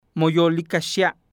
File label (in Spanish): que te vaya bien, Nahuatl Guerrero; Prontuarios